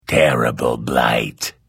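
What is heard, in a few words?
Hello, Vo; Morrowind GOTY GOG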